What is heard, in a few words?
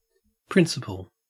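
"principal", Australian English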